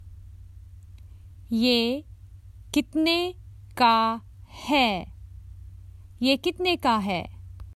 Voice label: How much does this cost, Common Hindi Phrases for travel